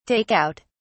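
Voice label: "take out", British English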